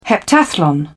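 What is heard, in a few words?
"heptathlon", British English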